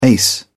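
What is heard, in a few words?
"ace", British English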